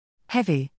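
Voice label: "heavy", English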